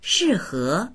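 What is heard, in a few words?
"shi4he2", Mandarin Chinese; 适合; 適合（する）、ふさわしい; dictionary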